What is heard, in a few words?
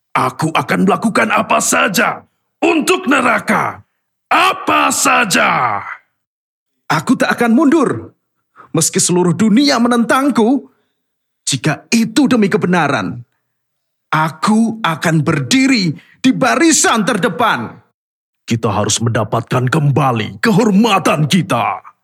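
character, voice suitable for narrative projects; INDONESIA; KARAKTER INDONESIA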